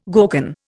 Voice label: "gorgon", Indian